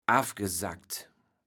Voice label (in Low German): "affgesackt", kaevels platt